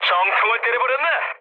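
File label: Korean bomb success mood low, speech; War Thunder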